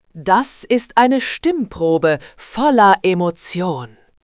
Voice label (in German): Sarkastisch, stimmen; moods